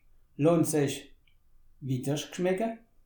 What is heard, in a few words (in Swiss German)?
"widdersch", alsace